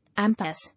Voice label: "Impasse", English